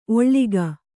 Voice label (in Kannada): "ಒಳ್ಗು", kannada